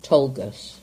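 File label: "Tolgus", English; place-names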